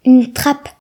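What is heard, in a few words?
"trap", Breton; gcompris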